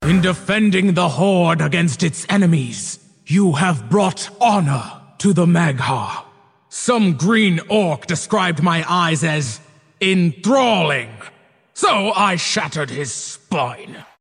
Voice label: Orc leader androgynous creature monster deep gutteral gruff raspy; warcraft